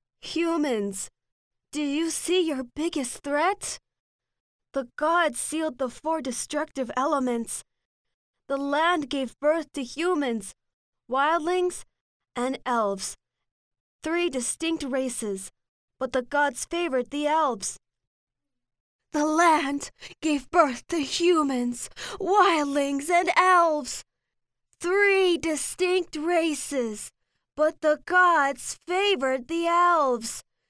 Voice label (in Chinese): 美式英语 女声, 外语